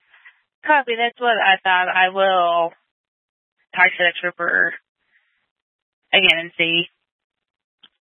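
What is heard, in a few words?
County Fire, Scanner audio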